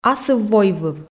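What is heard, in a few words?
"асыв-войвыв", Komi, female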